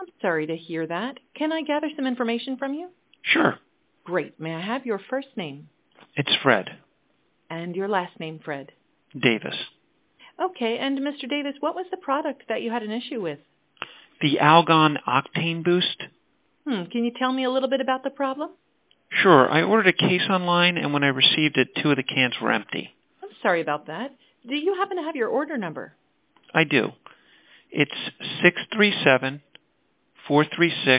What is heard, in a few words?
answering service recording corporate